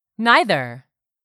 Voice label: "neither", English; 3000 words